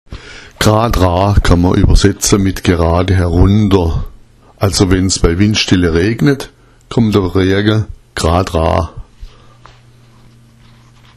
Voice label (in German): grad ra, schwaebisch; grad’ra kann man übersetzen mit „gerade herunter“